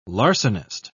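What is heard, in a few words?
"larcenist", British English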